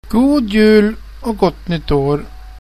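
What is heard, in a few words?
happy xmas swedish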